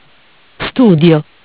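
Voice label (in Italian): "Studio", Italian; Bentel; BLE320; MESSAGGI VOCALI; Audio BGS220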